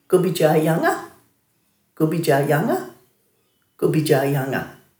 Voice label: "gubidjayanga", Dhurga